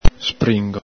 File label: "springo", Veneto